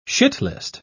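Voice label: "shitlist", British English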